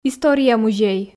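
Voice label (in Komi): "история музей", Komi, female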